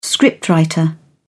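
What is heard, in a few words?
"scriptwriter", British English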